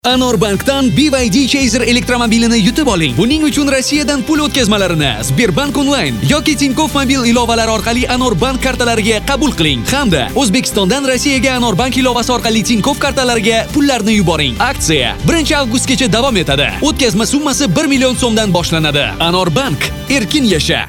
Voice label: VO Uzbek

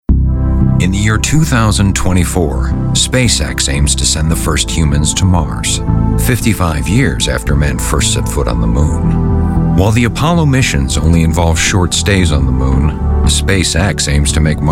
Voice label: ENG; English

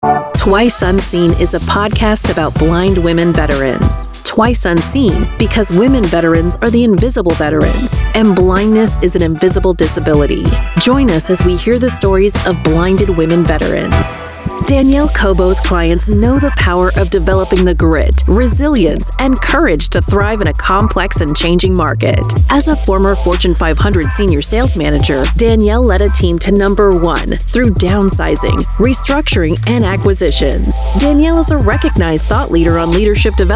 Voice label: scot ENG

